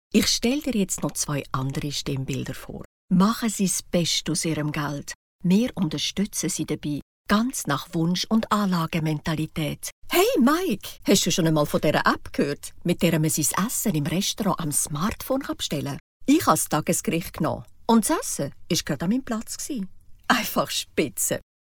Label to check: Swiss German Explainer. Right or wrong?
Right